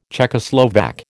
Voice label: "Czechoslovak", American

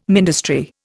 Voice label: "ministry", Indian

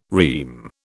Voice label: "REME", British

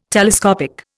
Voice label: "telescopic", Indian

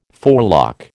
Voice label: "forelock", American

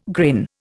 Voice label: "grin", Indian

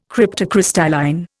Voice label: "cryptocrystalline", Indian

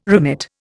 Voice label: "remit", Indian

